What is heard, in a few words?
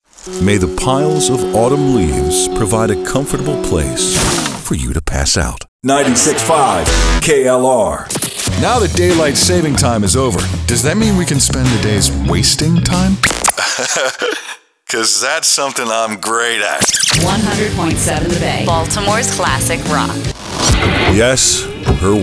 Imaging